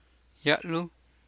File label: "ya'luj", Copala Triqui